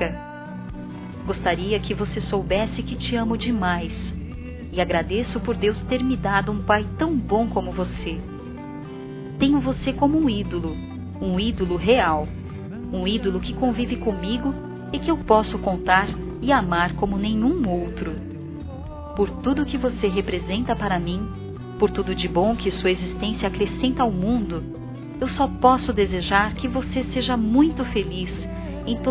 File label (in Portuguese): DATA TÃO ESPECIAL, fem; Telemensagens 2017